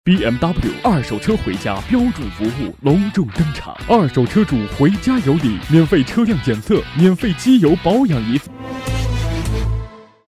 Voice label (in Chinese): 广告